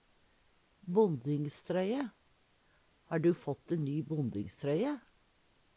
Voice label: bondingstroye, Numedalsmål; Substantiv hokjønn

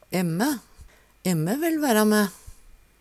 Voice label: "em`mæ", Numedalsmål; eg og

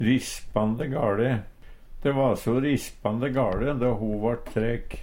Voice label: risspande gale, Numedalsmål; De va so rispande gaLe då ho vart trek.; Adverb; velig galt

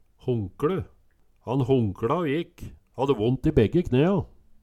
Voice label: honkLe, Numedalsmål; Han honkLa å gikk, hadde vont i begge knea

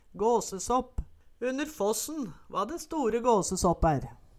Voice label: gåsesåpp, Numedalsmål

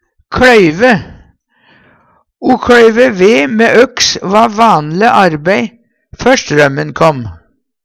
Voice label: kLoyve, Numedalsmål; O kLøyve ve mæ øks va van'le arbei før strømmen kom.

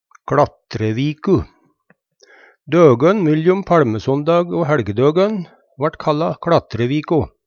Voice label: kLatreviku, Numedalsmål; Døgøn myljom palmesondag o hæLjedøgøn vart kalla kLatreviko.

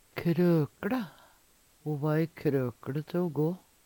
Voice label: "krøkLe", Numedalsmål

